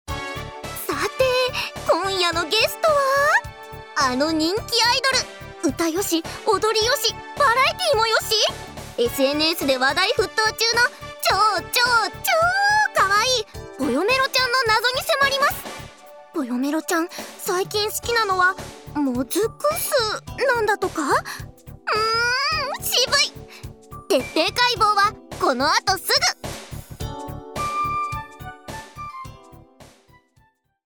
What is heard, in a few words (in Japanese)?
ナレーション